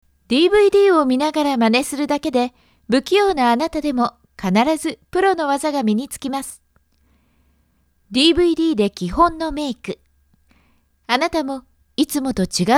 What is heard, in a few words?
akarui